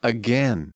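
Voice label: "again", USA, male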